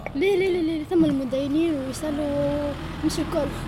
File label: woman in cafe